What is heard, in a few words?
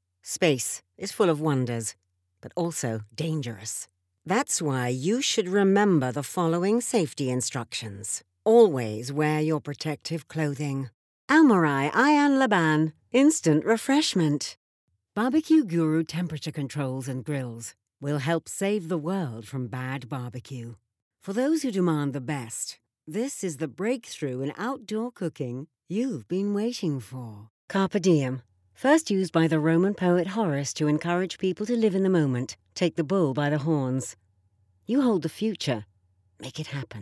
Commercial